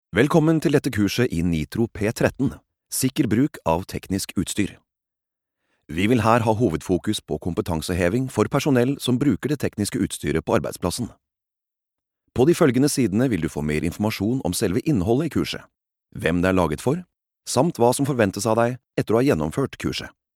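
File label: Norwegian elearning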